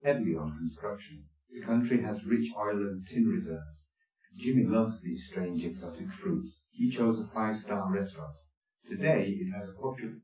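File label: male speech; SiSEC10; underdetermined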